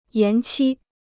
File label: "延期", Chinese